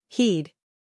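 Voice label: "scandal", American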